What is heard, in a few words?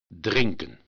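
"drinken", Dutch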